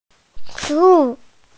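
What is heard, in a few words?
"food", Mandarin, hearing-impaired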